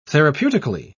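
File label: "therapeutically", British English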